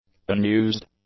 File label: "unused", english; adjectives